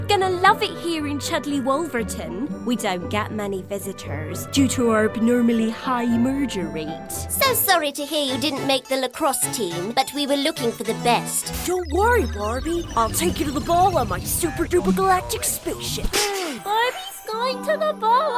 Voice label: English F Character Animation

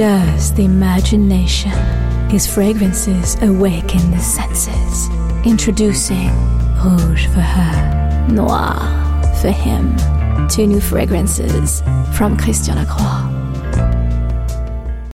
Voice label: French EN F Ad, accent of france; voice over

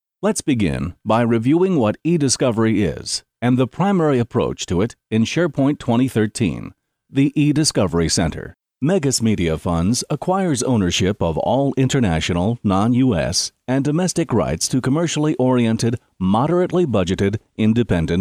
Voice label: English US M eLearning; voice over; american

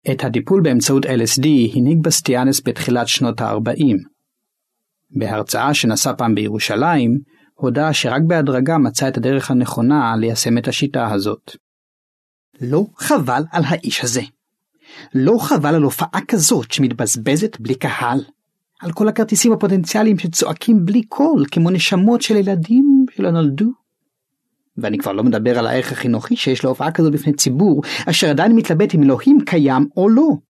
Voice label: Hebrew, documentary; voice over

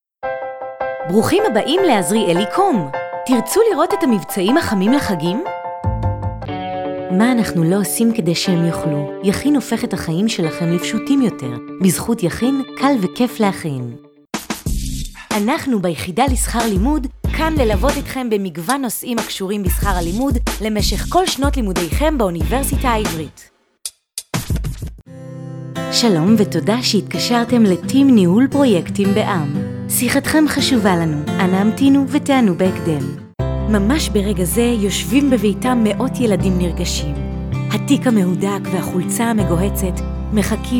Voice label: Hebrew F Showreel; voice over

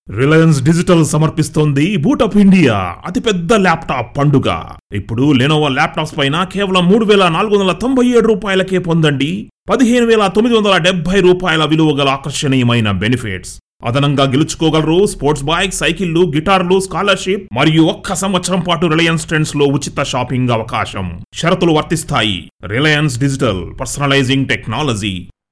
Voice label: Telugu M Commercial

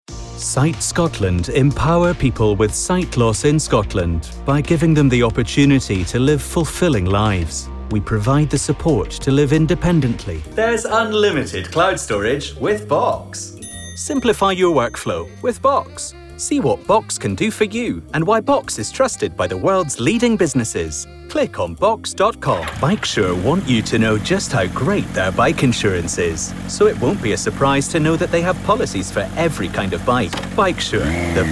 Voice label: Scottish English M Commercial; accent of scotland

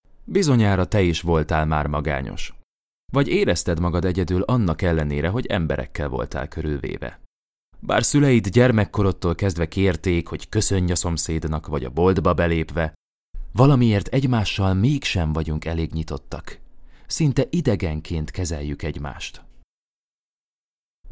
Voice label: Hungarian M Narration; voice over

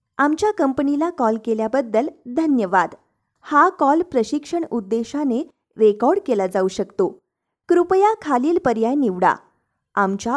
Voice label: Marathi, F, Explainer

